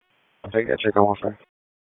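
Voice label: Police Dispatch, p25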